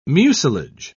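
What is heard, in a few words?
"mucilage", British English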